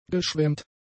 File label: "geschwemmt", German; adjectives